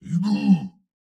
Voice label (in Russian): "Иду!", Фраза; Турбосвин